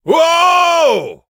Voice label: Male Fight Appear; Mighty Party; Android